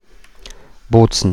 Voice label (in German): "Bozen" Standard; Bolzano; Italienisch